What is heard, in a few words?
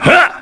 Vox, kr; Fluss; kingsraid